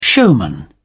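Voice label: "showman", British English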